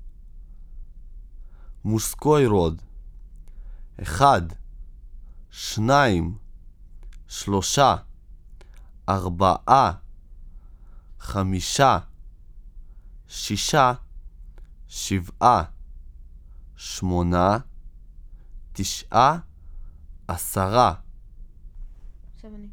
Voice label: numbers male